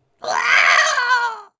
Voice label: Female Reactions